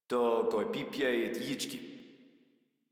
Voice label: Patrol Modular Corpus Male; Dialog; Barks; Battle; Warframe